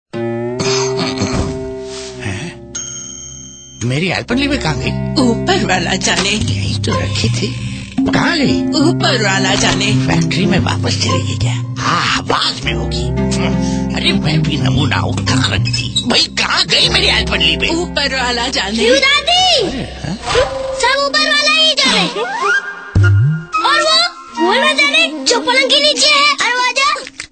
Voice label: TV Ads Ringtones; Alpenliebe

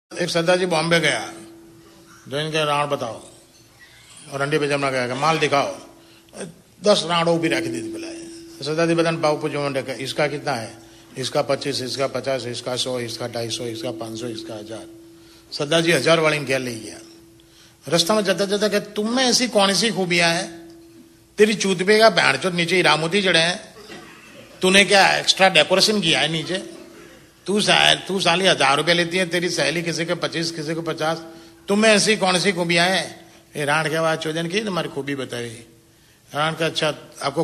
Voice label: Jokes, Gujarati; Gujarati Ringtones

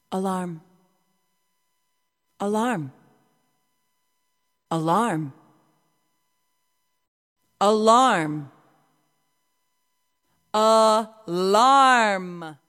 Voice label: Female Talking Ringtones

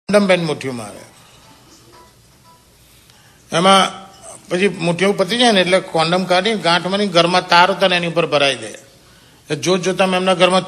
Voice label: Jokes, Gujarati; Gujarati Ringtones

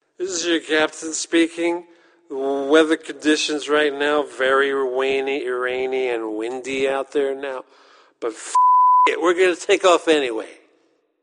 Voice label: Drunk Captain Rainy, funny ringtones

